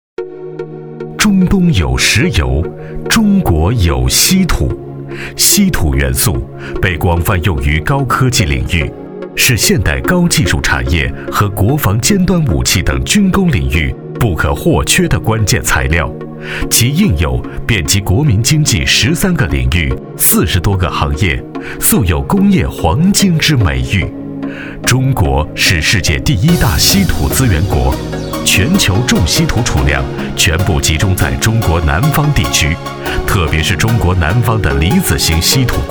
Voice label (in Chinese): 男 专题 厚重 磁性; 中国稀土